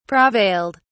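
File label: "prevailed", British English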